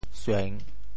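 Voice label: "sueng3", Teochew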